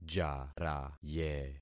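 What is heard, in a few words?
"jaraye", concatenated syllables; Affixing experiment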